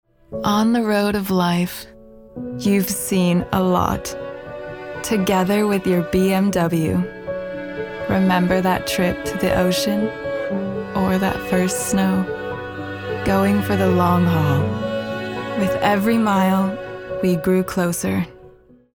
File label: female english usa transatlantic accent commercial; voices; bmw; devine voices